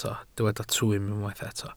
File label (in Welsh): "twym", Welsh